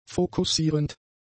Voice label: "fokussierend", German; adjectives